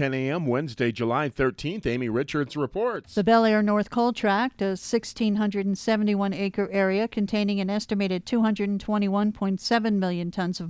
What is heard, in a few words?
wyoming radio news